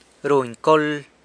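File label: "runy col", San Lucas Quiaviní Zapotec; stands in line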